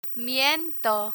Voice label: "miento", Spanish